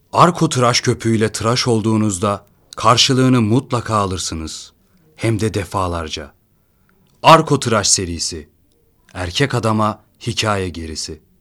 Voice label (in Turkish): REKLAM